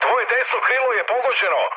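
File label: Serbian right wing mood med; speech; War Thunder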